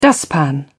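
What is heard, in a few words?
"dustpan", British English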